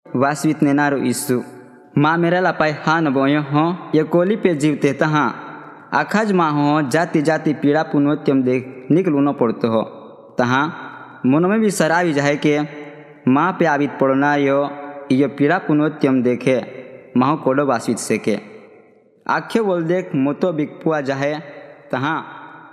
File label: good news dundgra bhili; duh dungra bhil audio bible